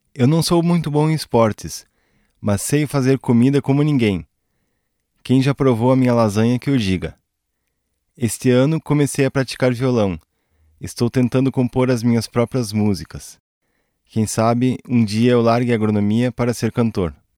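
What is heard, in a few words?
Portuguese male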